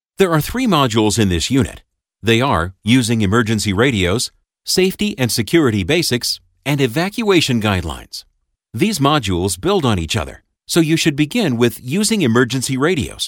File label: eLearning and Training